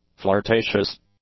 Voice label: "flirtatious", english; adjectives